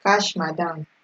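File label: "cash madam", Nigerian Pidgin, female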